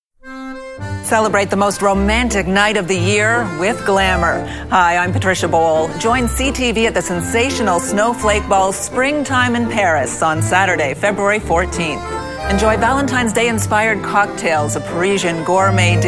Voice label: radio promo